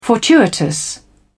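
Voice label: "fortuitous", English, British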